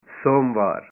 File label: "Monday", punjabi